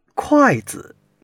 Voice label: "kuai4 zi", Chinese

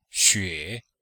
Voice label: "xue3", chinois; Cours-de-Chinois

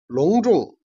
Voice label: "jian", Chinese

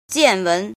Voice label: "jin", Chinese

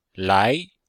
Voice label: "lai", Chinese

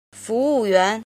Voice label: "jin", Chinese

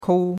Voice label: "kou1", chinois; Cours de Chinois

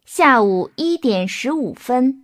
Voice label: Mandarin Conversation

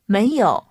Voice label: Mandarin Conversation

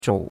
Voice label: "zhou3", chinois; Cours-de-Chinois

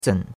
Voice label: "zen3", chinois; Cours de Chinois

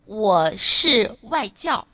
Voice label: Mandarin Conversation

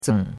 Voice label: "zeng3", chinois; Cours de Chinois

